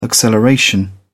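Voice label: "acceleration", English, British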